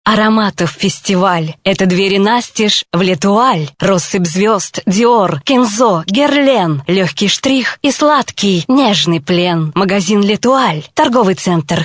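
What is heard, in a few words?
russian female voice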